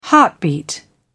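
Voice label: "heartbeat", British English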